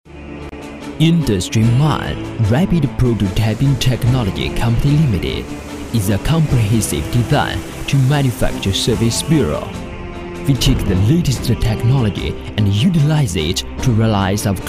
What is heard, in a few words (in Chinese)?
英语男 工业人打印设备供应商宣传片